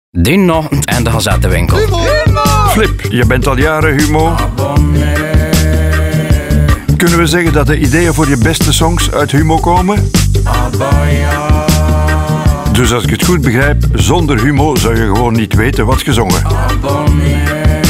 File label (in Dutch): Radiospot; Humo; Abonnee